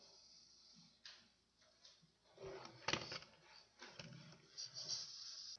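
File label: "pencil", spoken word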